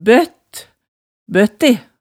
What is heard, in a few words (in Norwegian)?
"bøtt, bøtte", hemsedalsmaalet tale